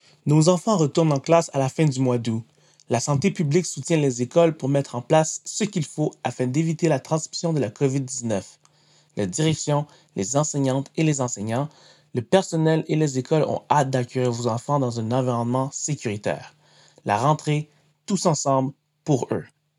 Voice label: Message FR